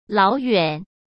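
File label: "老远", Mandarin Chinese; hsk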